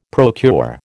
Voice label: "procure", American